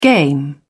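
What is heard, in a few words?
"game", English, British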